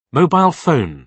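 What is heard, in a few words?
"mobile phone", British English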